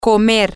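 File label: to Eat, Spanish, female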